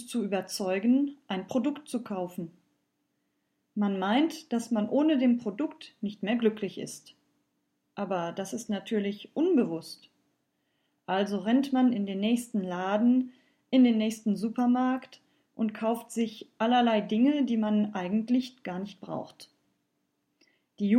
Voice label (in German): Werbung